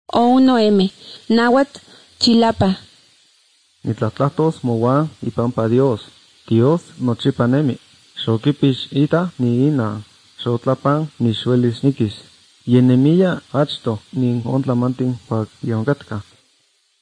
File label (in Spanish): spanish mexico